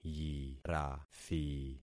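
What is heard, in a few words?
"yirathi", concatenated syllables; Affixing experiment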